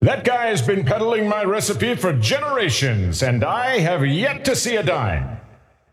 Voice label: scepter announce in game; dota